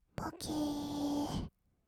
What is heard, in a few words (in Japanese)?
"ぼけーっ", kawaii voice; ASMR; 色々なワンショット